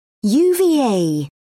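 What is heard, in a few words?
"uva", British English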